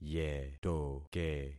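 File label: "yetoke", concatenated syllables; Affixing experiment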